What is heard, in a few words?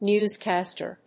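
"newscaster", English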